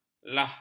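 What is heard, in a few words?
"lakh", Malay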